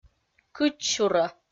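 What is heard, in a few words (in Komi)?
"кыдзсюрӧ", Komi, female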